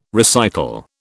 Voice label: "recital", British

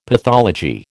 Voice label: "pathology", American

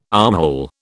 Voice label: "armhole", British

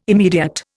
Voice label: "immediate", Indian

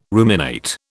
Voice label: "ruminate", British

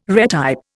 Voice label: "retype", Indian

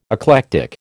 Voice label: "eclectic", American

